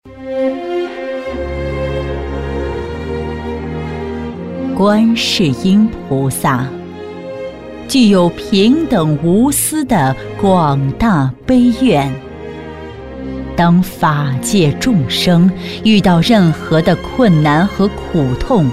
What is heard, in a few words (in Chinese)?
女3-专题, 女; 观世音菩萨